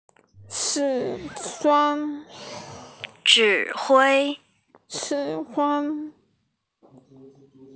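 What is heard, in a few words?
"zhǐ huī", Mandarin Chinese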